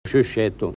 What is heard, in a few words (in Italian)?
"sciyscettu", genovese; Sy'Settu/ (soffietto)